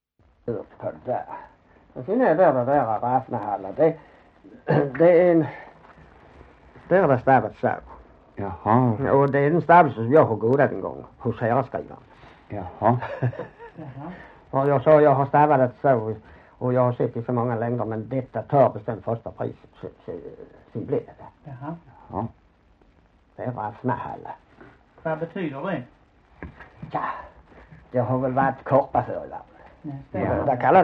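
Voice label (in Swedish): Hörja Skåne, svenska sagor dialekter; Folkminnen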